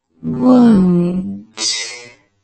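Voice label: "won't" British slow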